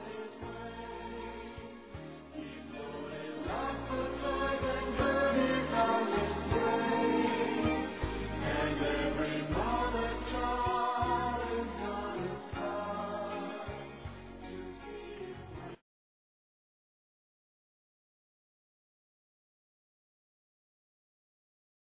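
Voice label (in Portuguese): Natal Para Amiga Feminino; Telemensagens; Natal e Ano novo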